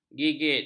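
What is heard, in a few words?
"gigit", Malay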